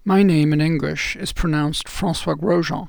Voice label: name in english